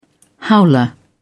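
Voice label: "howler", British English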